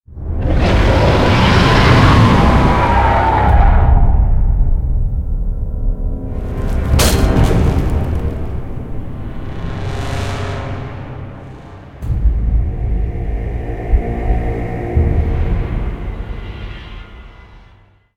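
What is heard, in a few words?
cinematic voice, Polish; lineage2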